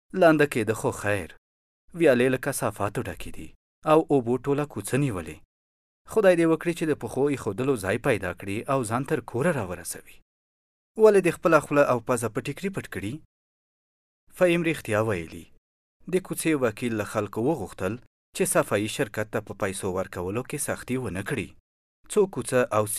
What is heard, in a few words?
MALE PASHTO